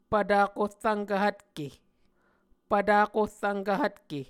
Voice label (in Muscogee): "pvtak ohrvnkv hvtke", Muscogee